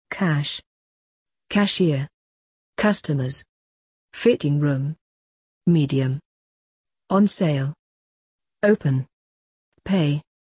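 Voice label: British; shopping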